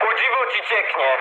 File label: damaged water mood low, speech pol; War Thunder